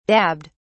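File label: "dabbed", British English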